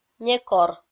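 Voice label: "некор", Komi-Zyrian, female; kpv audio dict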